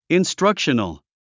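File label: "instructional", English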